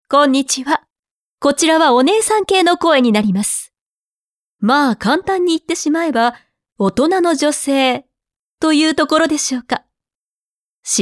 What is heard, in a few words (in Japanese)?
onesan